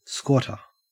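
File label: "squatter", Australian English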